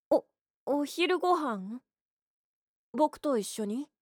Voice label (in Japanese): boy kiyowa